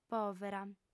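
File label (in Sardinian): "pòvera", female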